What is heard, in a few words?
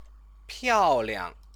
"piaoliang", Chinese; 漂 亮; beautiful; stative verb